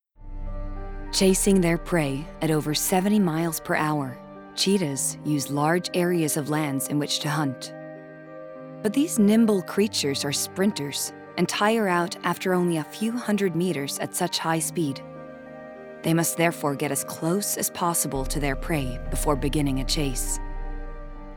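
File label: Norwegian dokumentar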